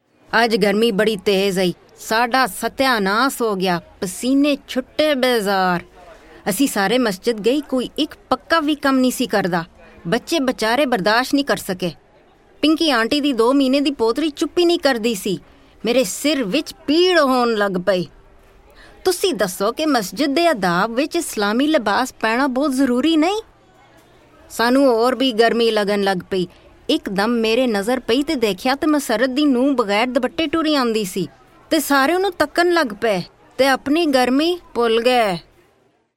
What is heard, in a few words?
Punjabi